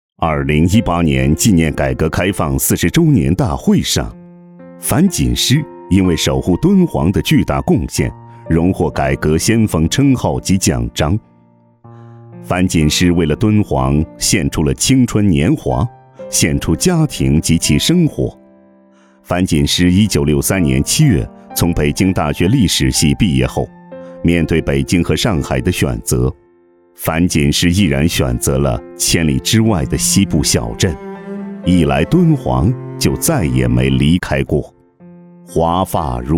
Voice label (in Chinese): 感动人物大气讲述风格; 敦煌守护者